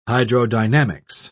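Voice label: "hydrodynamics", British English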